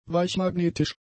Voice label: "weichmagnetisch", German; adjectives

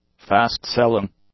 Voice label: "fast-selling", english; adjectives

